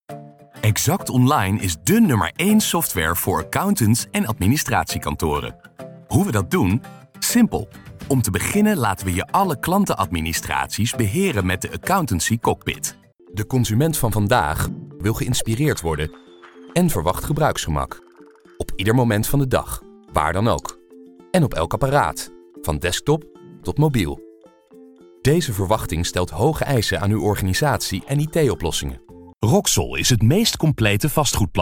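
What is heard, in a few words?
Dutch M Corporate, male; voice over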